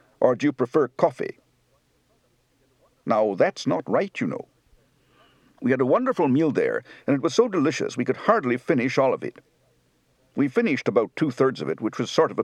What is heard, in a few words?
Canadian, Accent